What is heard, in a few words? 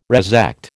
"resect", American